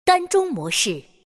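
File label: Announcer type mid only, chinese; dota2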